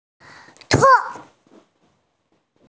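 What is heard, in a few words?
"te4", Mandarin Chinese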